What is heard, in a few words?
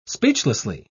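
"speechlessly", English, British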